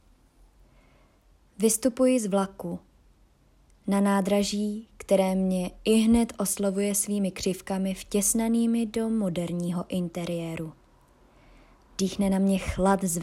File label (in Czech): Narace